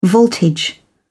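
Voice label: "voltage", British English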